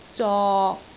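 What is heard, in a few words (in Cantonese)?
"zok6", Cantonese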